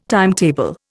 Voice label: "timetable", Indian